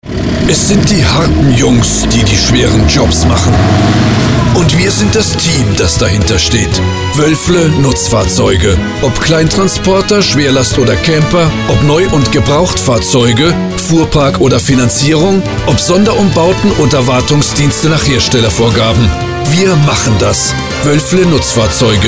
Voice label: Imagewerbung; Fiat

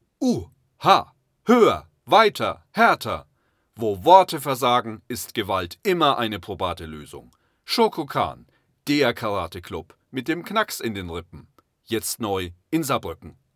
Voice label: Hoerprobe Werbung Karatestudio

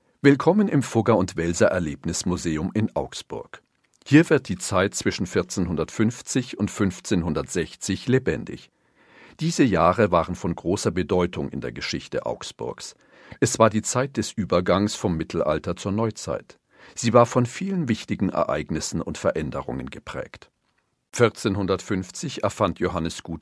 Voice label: Audiguide Intro Sprecher; Fugger Welser Erlebnismuseum

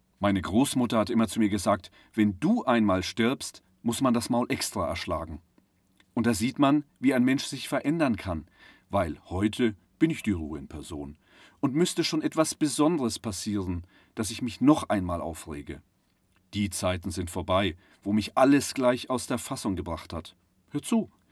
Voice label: Hoerprobe Hoerbuch

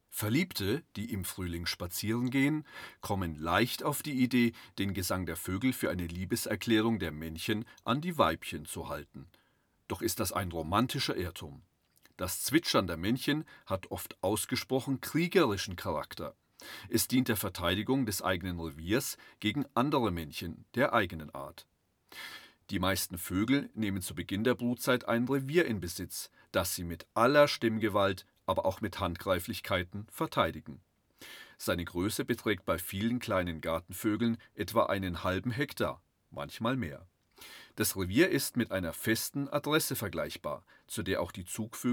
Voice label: Hoerprobe Dokumentation

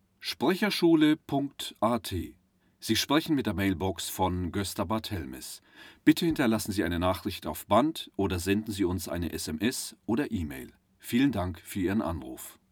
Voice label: Hoerprobe Ansage Mailbox

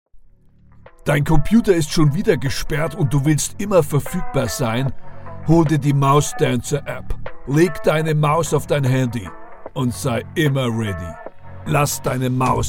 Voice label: Sprecher App Radiospot; Mousedancer

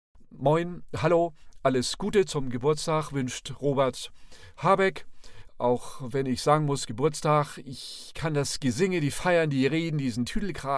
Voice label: Stimmenimitator; Robert Habeck; Geburtstag

